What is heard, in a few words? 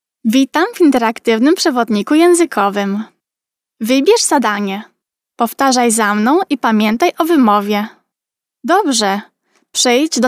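Polish female corporate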